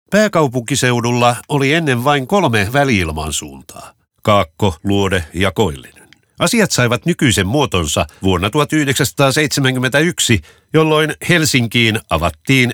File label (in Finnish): radio; alepa; lounas